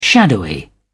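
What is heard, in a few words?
"shadowy", British English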